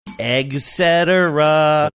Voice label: saying eggscetera sing songy